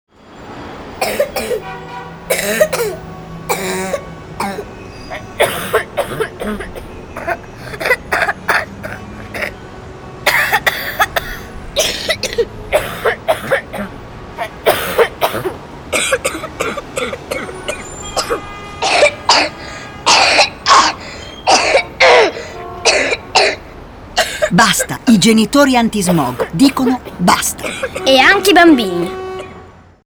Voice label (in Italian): Spot Radio Campagna Tosse; Genitori Antismog